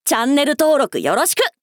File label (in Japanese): 「チャンネル登録よろしく！」, 男の子 王道系少年主人公